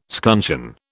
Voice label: "scuncheon", British

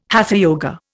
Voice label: "hatha yoga", Indian

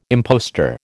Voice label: "impostor", American

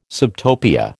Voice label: "subtopia", American

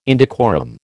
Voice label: "indecorum", American

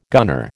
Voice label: "gunner", American

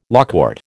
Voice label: "lawcourt", American

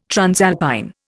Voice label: "transalpine", Indian

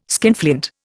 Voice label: "skinflint", Indian

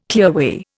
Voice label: "clearway", Indian

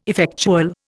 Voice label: "effectual", Indian

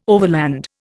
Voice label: "overland", Indian

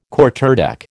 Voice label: "Quarterdeck", American

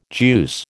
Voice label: "juice", American

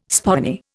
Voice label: "spoony", Indian

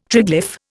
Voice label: "triglyph", Indian

